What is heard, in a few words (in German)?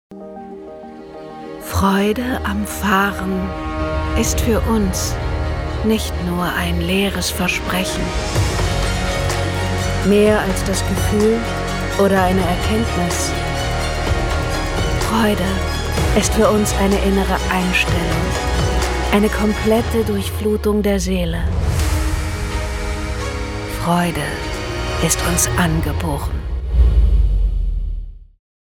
BMW Werbung